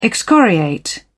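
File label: "excoriate", British English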